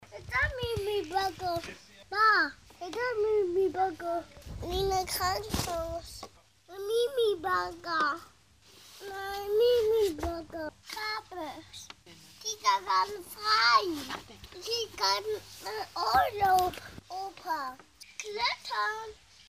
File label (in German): 2 Jahre, Tonbeispiel, Sprache; • ahmt Fegen, Kochen nach